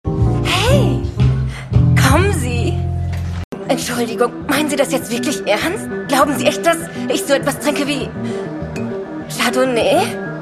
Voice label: character, German, female

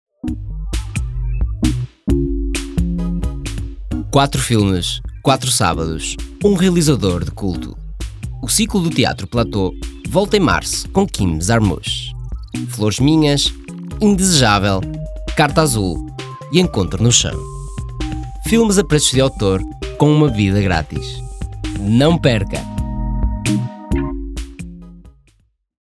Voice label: advertising, portuguese, male; audiobook

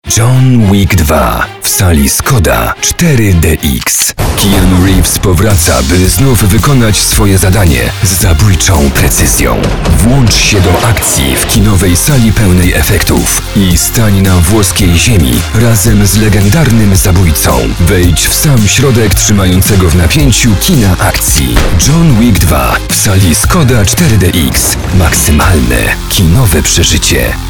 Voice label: POLISH MALE

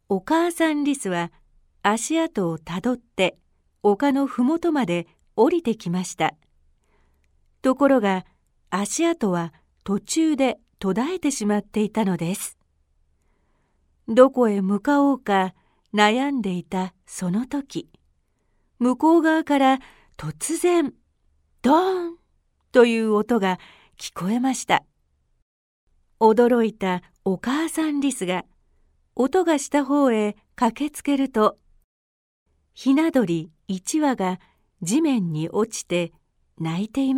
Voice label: JAPANESE WOMEN

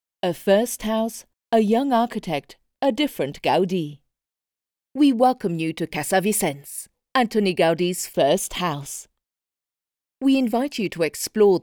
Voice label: AUDIOGUIDE, ENGLISH UK FEMALE

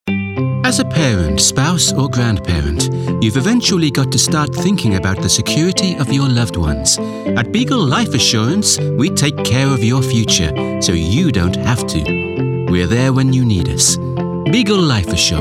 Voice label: commercial, English UK, male

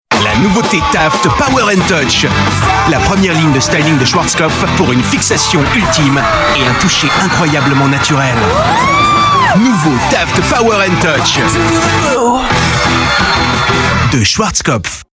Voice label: advertising, FRENCH MALE

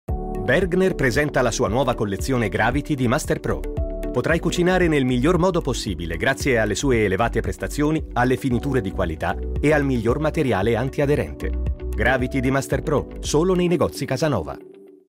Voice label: commercial, italian, male

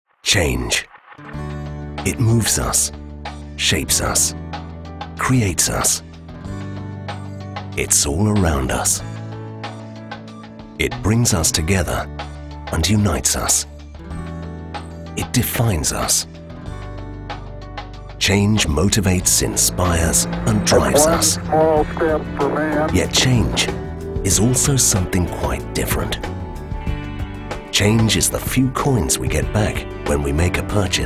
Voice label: informative, ENGLISH UK MALE

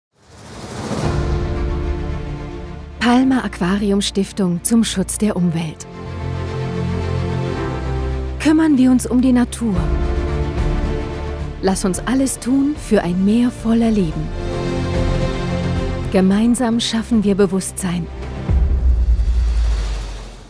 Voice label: corporate, German, female

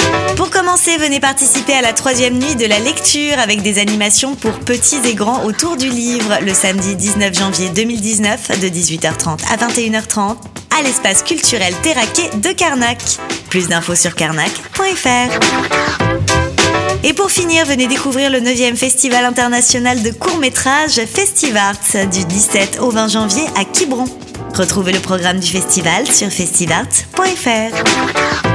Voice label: radio commercial, French, female

